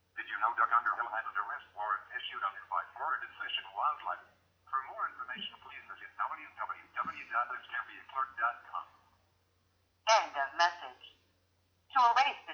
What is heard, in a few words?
Robo Call; Doug Underhill